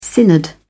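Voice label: "synod", British English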